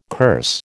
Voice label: "curse", American